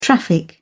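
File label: "traffic", British English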